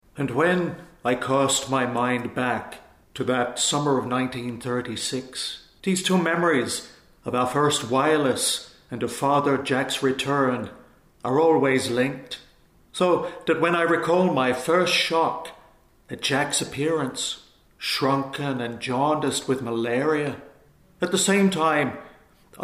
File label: Irish Accent; voice